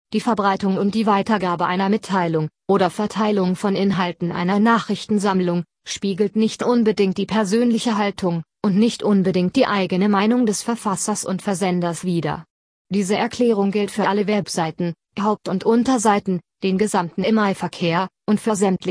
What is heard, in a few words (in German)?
Audio KM-Ansage Impressum Inhalte